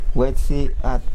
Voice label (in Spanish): Nawat palabras lluvia; pipil